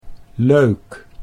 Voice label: "leuk", Dutch

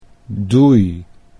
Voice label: "doei", Dutch

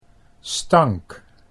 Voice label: "stank", Dutch

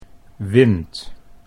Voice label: "wind", Dutch

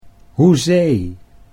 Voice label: "hoezee", Dutch

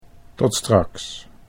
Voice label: "tot straks", Dutch

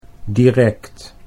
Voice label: "direct", Dutch

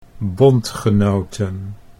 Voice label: "bondgenoten", Dutch

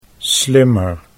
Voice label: "slimmer", Dutch

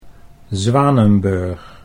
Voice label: "Zwanenburg", Dutch

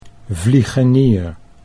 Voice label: "vliegenier", Dutch

